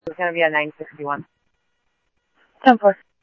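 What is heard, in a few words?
Scottsdale police department, Scottsdale Police, scanner; SCTSDLE PD - PD2; ThompsonPeakHigh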